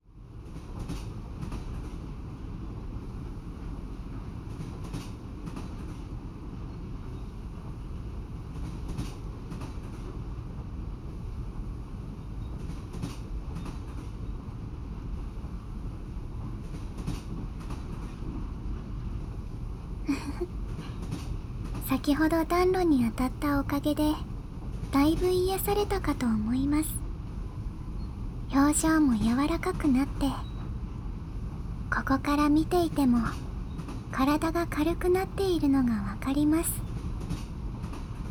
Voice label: 膝枕でお耳吹き＆耳かき（右耳）, ASMR; いやでんっ! 〜癒やしの寝台列車「アルデバラン」〜; M・A・O; 暖炉・薪パチ

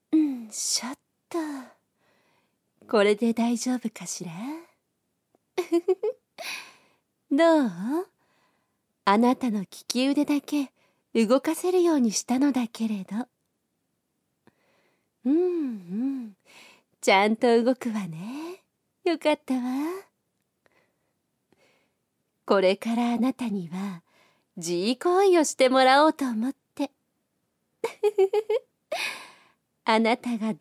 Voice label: 耳元囁き; 耳元囁き強制連続オナニー; 10回出すまで眠れません 魔女の連続搾精地獄編; 効果音あり大きめ

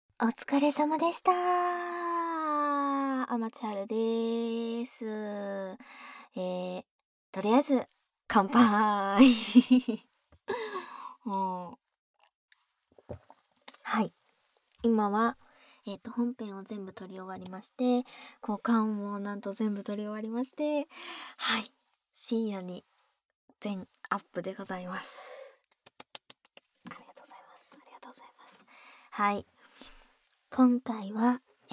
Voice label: フリートーク, ASMR; スイーツカノジョ -ふあふあコットンキャンディー

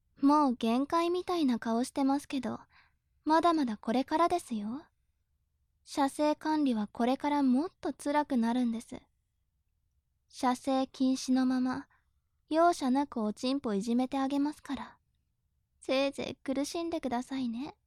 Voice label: 調教中音声

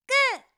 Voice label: "グー", 遊ぶ女の子

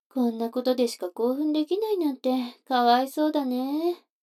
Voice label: 女の子 台詞

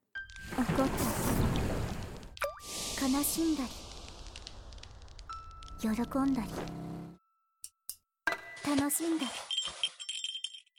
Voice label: 喜怒哀楽, 効果音あり

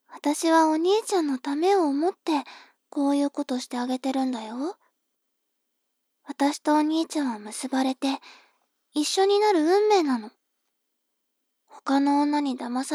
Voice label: 妹 台詞

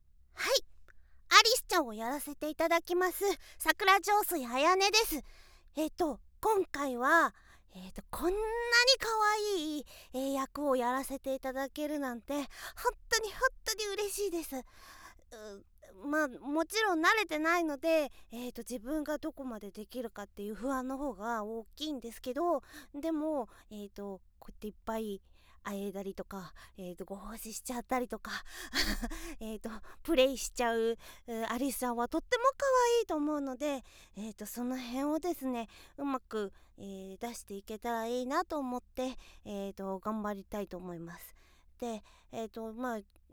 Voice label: 収録前・フリートーク

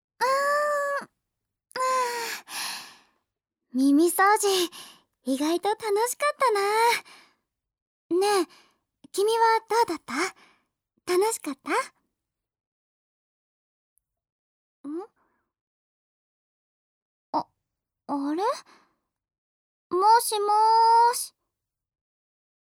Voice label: 添い寝, ASMR

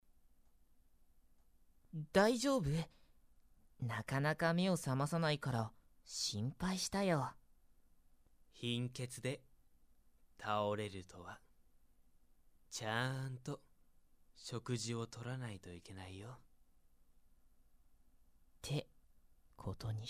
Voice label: ピロートーク

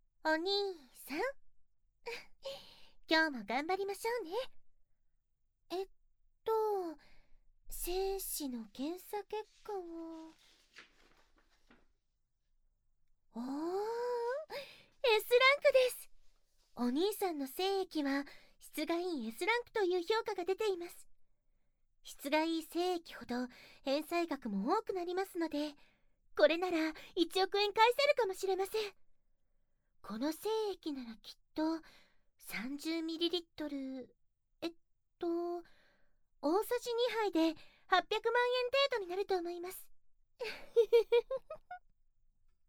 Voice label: シコ無し ソフト耳舐めであまあまの甘出し, ASMR

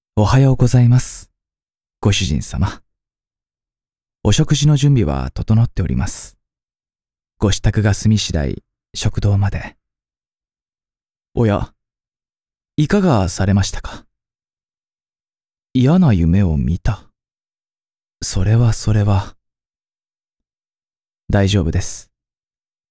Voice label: 「おはよう」執事

